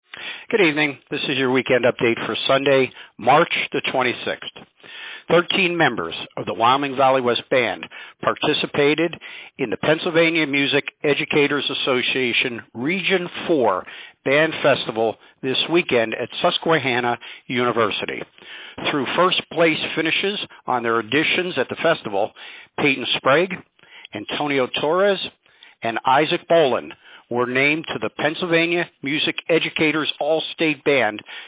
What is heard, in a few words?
Robocall